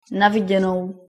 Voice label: goodbye, czech